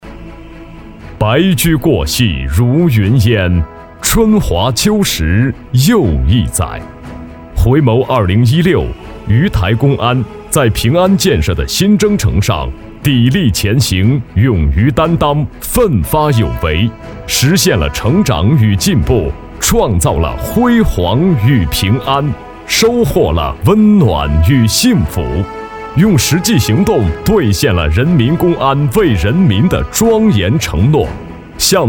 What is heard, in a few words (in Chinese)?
男, 专题; 鱼台公安